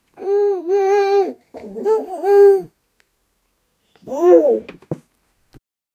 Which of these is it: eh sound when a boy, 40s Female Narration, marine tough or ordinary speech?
eh sound when a boy